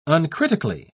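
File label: "uncritically", British English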